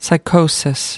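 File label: "psychosis", US English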